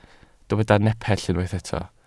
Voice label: "nepell", welsh

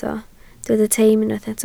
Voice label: "teim", Welsh

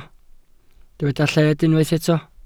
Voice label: "lled", welsh

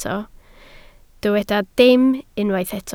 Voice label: "dim", Welsh

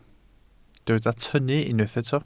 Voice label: "tynnu", Welsh

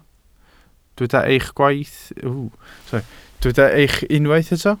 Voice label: "eich", Welsh

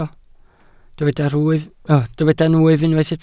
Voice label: "nwyf", welsh

